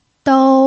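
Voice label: "dou", Mandarin Chinese, first tone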